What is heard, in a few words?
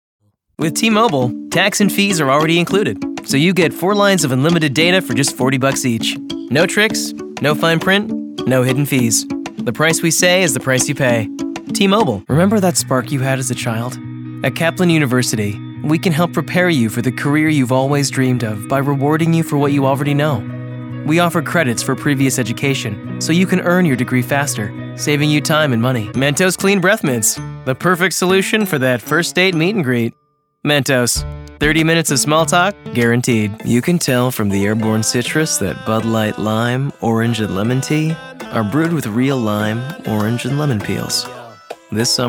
Commercial; GGRP Demo Samples; Commercial Demo Sample 1